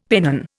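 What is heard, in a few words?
"pennon", Indian English; Dictionary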